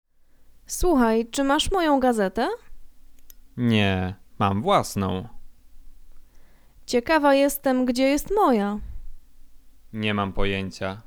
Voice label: conversation; lektorek; firstyear